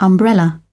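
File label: "umbrella", British English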